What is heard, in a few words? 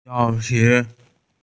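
"game", Mandarin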